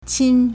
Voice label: "qin1", Chinese

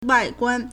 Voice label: "wai4guan1", Chinese; 外观; 外觀; wàiguān; exterior condition; exterior appearance

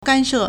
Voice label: "gan1 she4", Chinese

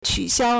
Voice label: "qu3 xiao1", Chinese

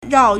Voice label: "rao4", Chinese

